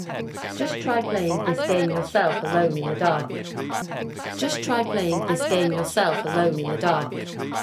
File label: Cloth mask on mask off SNR 5dB 4 talker babble